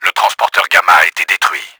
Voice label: GAMMA DOWN, french; Battle Engine Aquila; XBOX